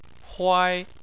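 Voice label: "huai", Chinese, first tone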